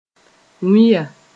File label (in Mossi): "wĩya", moore